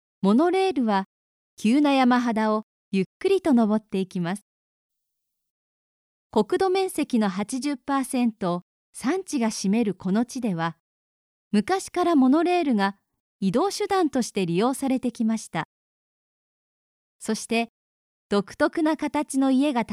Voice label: female